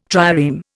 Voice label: "trireme", Indian

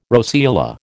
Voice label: "roseola", American

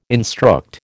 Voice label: "instruct", American

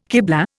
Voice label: "kiblah", Indian

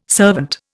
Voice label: "servant", Indian

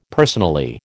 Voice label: "personally", American

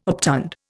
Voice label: "obtund", Indian

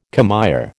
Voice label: "commÊre", American

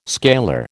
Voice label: "scalar", American

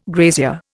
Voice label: "grazier", Indian

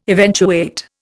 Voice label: "eventuate", Indian

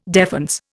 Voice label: "defense", Indian

